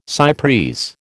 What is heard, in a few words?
"cy pres", American